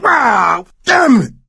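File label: boomer reaction, voice, male; Left 4 Dead 2; coach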